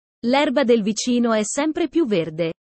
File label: italian sayings about life